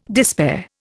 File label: "despair", Indian